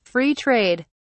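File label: "Free trade", British English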